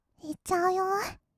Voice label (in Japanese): "いっちゃうよ", kawaii voice; ASMR; 色々なワンショット; 1200 kawaii voice!!